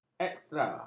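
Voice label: "ekstra", Malay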